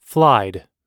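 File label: "flied", American English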